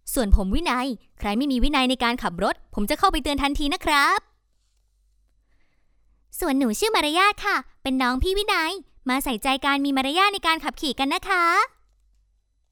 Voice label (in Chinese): 泰语女声, 自然舒缓